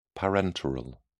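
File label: "parenteral", British English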